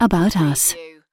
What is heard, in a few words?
UK female about-us; lynne publishing